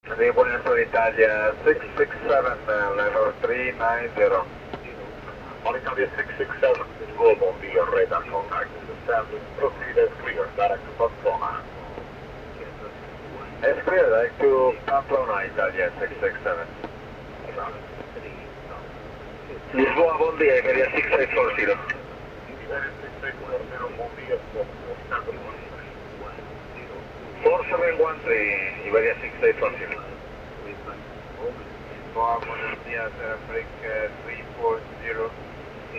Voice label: aircraft radio message